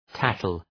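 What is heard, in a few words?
"tattle", English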